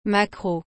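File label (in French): "maquereau", French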